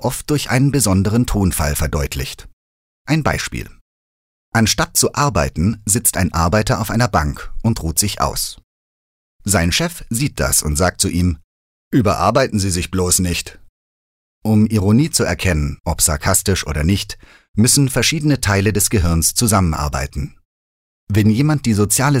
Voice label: Sarkasmus Neutral

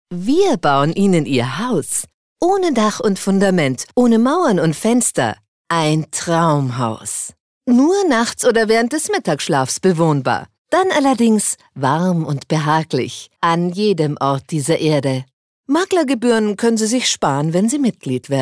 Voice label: Werbung, Freundlich

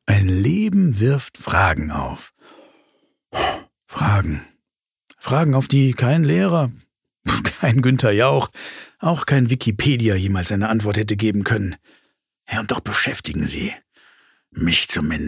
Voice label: Schauspiel Dynamisch

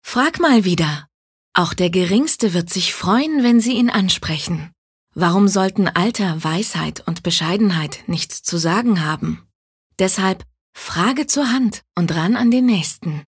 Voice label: Werbung Sanft

